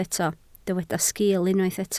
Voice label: "sgil", Welsh

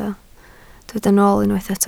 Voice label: "nool", Welsh

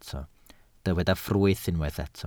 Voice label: "ffrwyth", Welsh

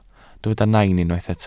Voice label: "nain", Welsh

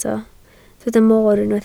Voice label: "moor", Welsh

